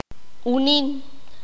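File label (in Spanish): "niño", Q'anjob'al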